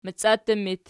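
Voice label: "maciattu" locative, Archi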